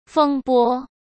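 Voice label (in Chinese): "风波", Mandarin Chinese; chinese flashcards; hsk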